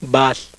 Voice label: melpa

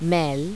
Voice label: gujarati

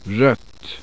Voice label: swedish, vowels

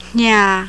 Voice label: burmese

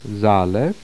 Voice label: polish, vowels

